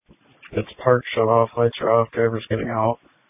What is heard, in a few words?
Police Tactical Encrypted